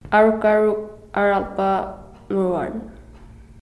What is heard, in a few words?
r sound; mawng spelling